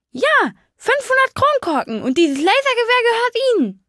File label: Male unique dialogue